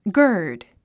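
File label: "gird", English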